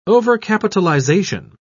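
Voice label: "overcapitalization", British English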